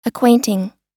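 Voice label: "acquainting", US English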